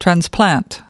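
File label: "transplant", US English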